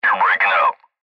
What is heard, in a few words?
Cb Trucker Radio; Radio Voice; Blastwave